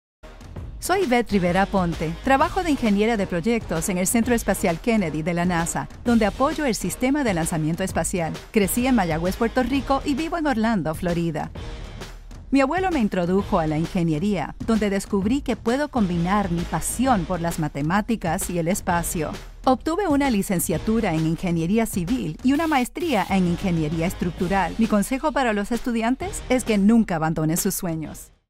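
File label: Dubbing Spanish; voiceover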